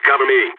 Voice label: cover me, balkan; vo

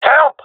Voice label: request help, jungle male; vo; agents; Source Model Packs; Counter Strike Model Pack; CS2

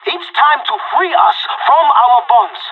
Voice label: radio lock n load, pirate; Counter Strike Model Pack; CS:GO

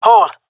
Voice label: hole, jungle fem; player vo; Counter Strike Model Pack; CS:GO

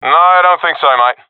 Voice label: disagree, professional; vo; Counter Strike Model Pack; CS:GO

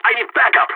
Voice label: radio need backup, balkan; vo

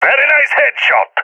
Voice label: sees headshot, balkan; vo; balkan epic

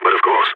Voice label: agree, balkan; vo

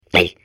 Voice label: babble; Portal 2

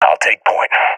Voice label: request follow me, seal epic; Counter Strike Model Pack; CS:GO

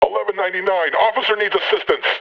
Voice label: metropolice pain heavy; vo; Half Life Alyx

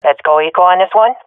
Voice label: request eco, swat fem; Counter Strike Model Pack; CS:GO

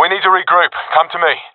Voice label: request regroup, professional epic; vo; Source Model Packs; Counter Strike Model Pack; CS2

